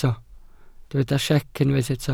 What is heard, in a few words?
"siec", Welsh